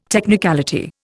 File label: "technicality", Indian